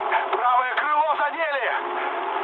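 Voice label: right wing, mood med, Russian; War Thunder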